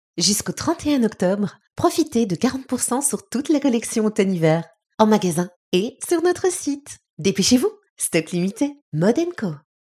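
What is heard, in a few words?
Commercial Promo, French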